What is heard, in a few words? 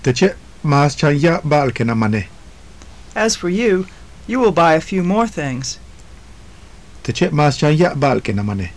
conversation, maya; Teche' maas chan ya'ab ba'al ken a manej?; As for you, will you buy a few more things?; JUNP'IIT MAYA